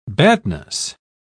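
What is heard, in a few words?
"badness", British English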